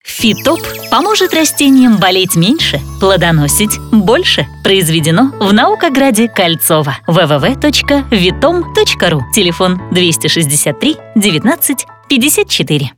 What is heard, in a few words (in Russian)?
reklamnii rolik; Fitop